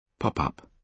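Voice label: "pop up", British English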